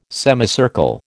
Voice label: "semicircle", American